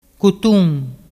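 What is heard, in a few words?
"cutun", Ligurian dialect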